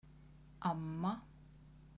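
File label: "amma", Icelandic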